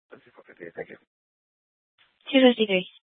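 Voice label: Mesa Police Department Superstition Dispatch, scanner; Mesa PD; 4 Superstition Dispatch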